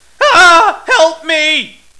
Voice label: fear

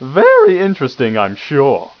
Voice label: upper answer, upperclass

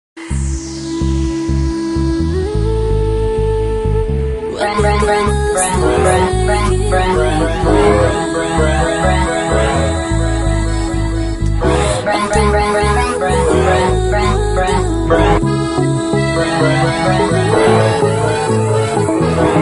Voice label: stereo bruh

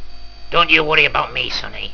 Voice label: old man

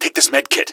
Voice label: health, gasmask; scmod

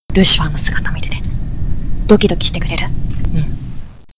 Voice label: chat

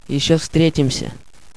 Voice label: radio

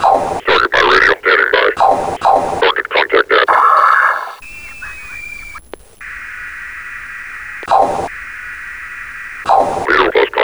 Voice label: battle chatter

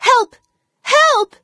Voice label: fem help; epstein

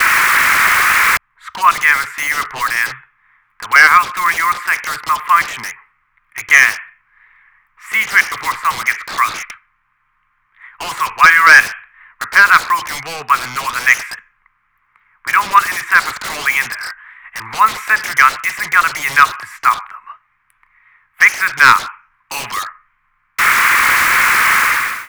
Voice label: radio

